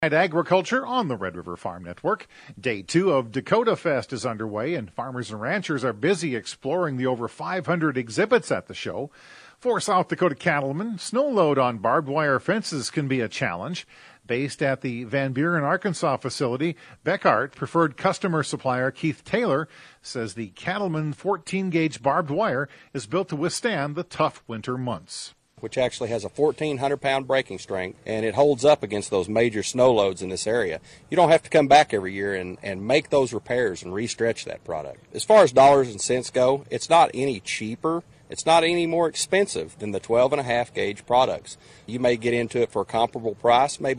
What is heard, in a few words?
air check; Fencing